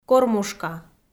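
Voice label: "кормушка", Komi, female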